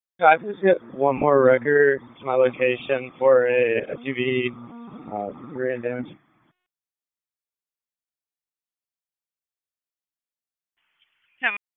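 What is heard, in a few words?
Police District Dispatch, p25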